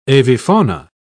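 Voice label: "avifauna", British English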